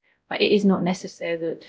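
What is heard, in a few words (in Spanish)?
Voice Acting, es, female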